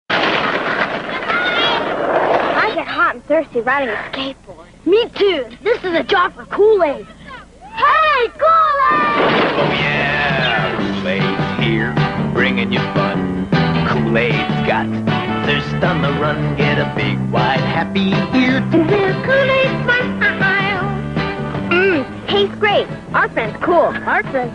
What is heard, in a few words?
Kool-Aid commercial